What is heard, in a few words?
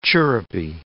"chirrupy", English